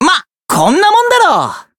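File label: Voice Japanese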